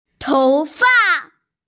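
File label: "tou fa", Mandarin Chinese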